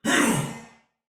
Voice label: Attack Normal Soldier Male, Battle; Sinecure